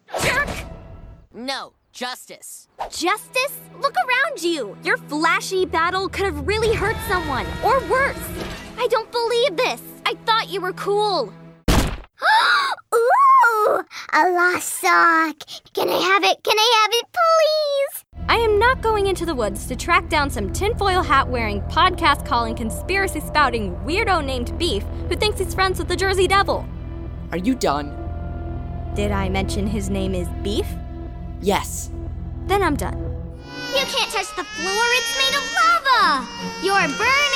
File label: Animation